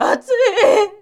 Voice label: horror "atui"